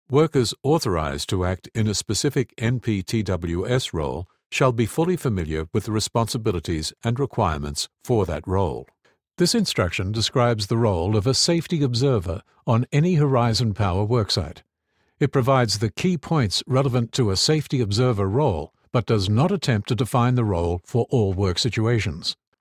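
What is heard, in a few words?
e-learning Sample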